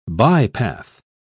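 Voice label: "bypath", English, British English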